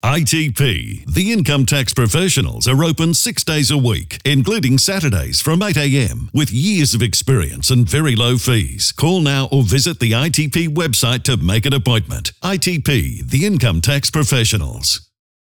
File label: Radio Ad; ITP Wagga